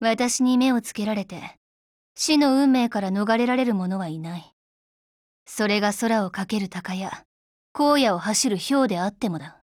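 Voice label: DIALOGUE, Japanese; NTW20Mod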